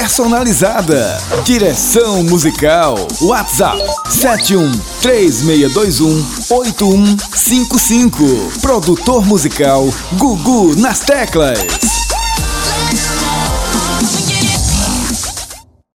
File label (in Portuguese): Spot Comercial; Empresa Gugu Nas Teclas